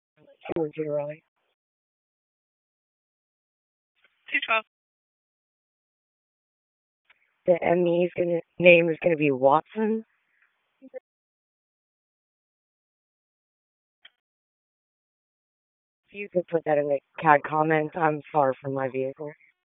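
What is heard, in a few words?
Police Northeast District Dispatch, Raleigh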